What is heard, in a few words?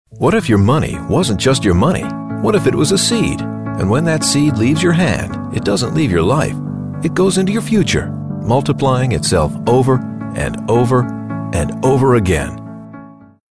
Conversational